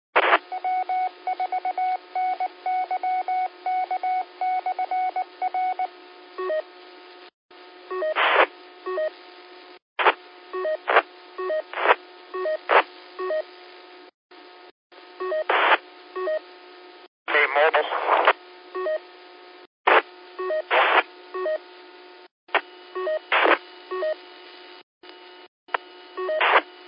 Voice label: qso rec simplex logic